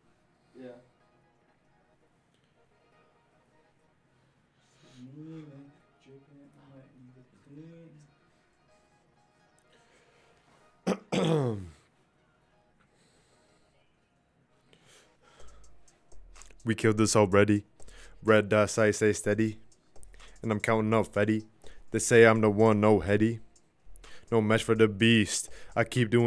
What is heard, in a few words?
recordin Mic Input; flstudio; Vocals